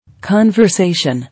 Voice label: "Conversation", English